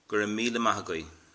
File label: thanks, irish